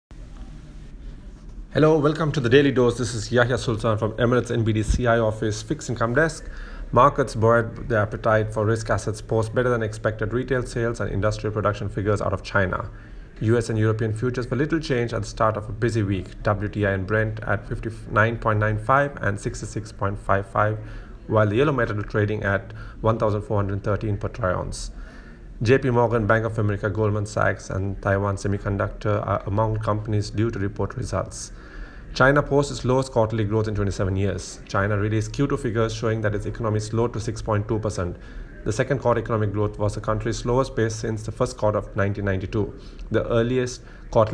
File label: voice note; fixed income